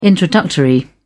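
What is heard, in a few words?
"introductory", English, uk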